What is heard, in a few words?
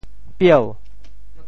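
"pieu2", Teochew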